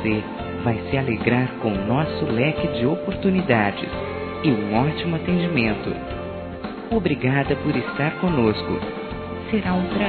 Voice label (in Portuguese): NEGÓCIO FECHADO-F, Telemensagens Empresarial; fem; Telemensagens 2017